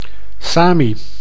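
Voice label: "Sami", Dutch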